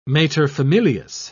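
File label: "materfamilias", British English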